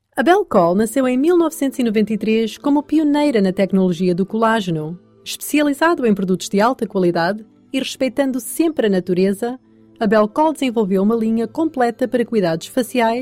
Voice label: female portuguese voice over sales; portugal

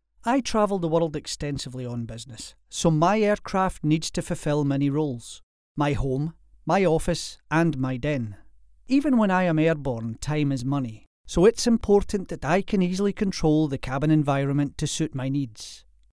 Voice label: male scottish voice over character

